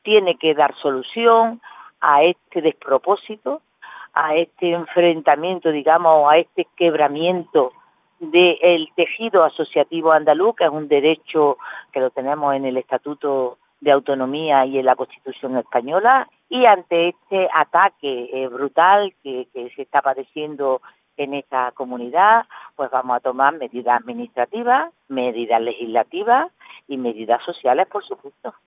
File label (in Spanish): lobby europeo mujer